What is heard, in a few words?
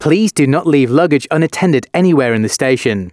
announcements, male